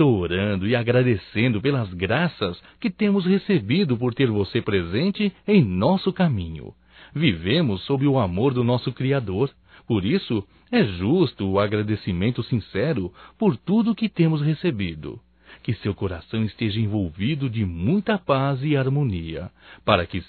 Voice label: Aniversário Irmão Evangélico Nós Desejamos Masculino; Telemensagens 2017; Aniversarios

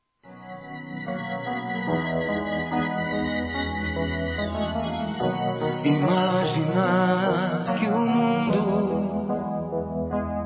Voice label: CUNHADO (F)

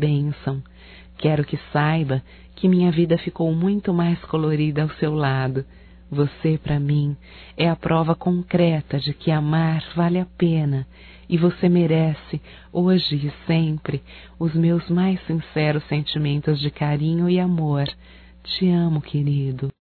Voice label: ANIV DE CASAMENTO, fem; Casamentos; Telemensagens 2017

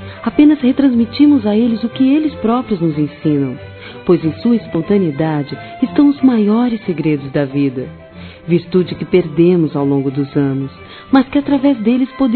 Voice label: Parabéns aos Pais Feminino; Telemensagens; Felicitações; Telemensagens 2017

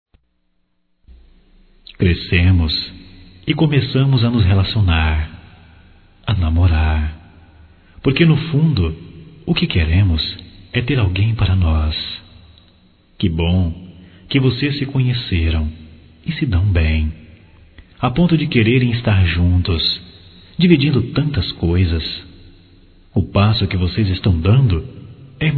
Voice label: Homenagem aos Noivos Masculino

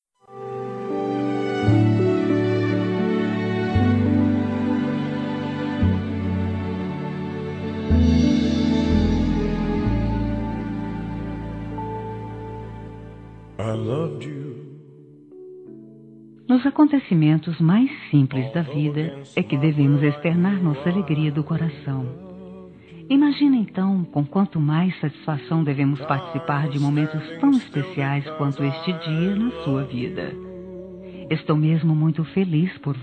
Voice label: Dia das Mães e aniv., f; Telemensagens 2017

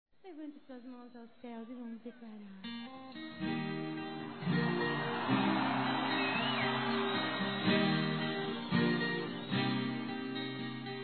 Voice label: Irmã Masculino, Telemensagens Dia das Mães; Telemensagens 2017

